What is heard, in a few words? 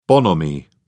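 "bonhomie", British English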